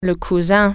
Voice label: "cousin", French